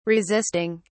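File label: "resisting", British English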